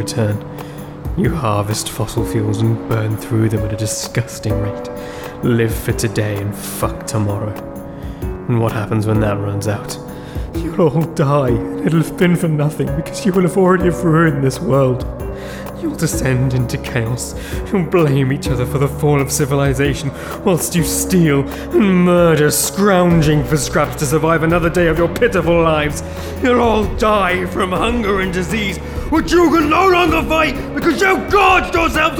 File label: dramatic reel